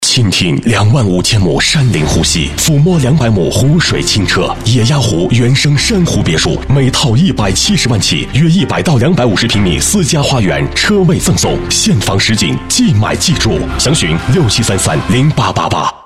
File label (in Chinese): 男 广告; 昆百大野鸭湖